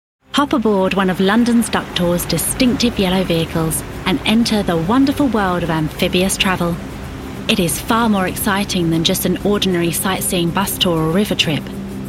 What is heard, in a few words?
Corporate Compilation